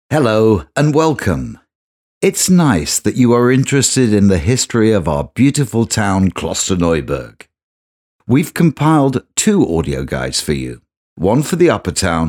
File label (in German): Hoerbeispiel Audioguide; Klosterneuburg